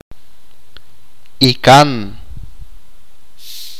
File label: tio el hermano menor de su madre, achi